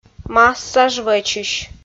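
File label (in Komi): "массаж вӧчысь", Komi-Zyrian, female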